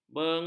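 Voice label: "benguk", Malay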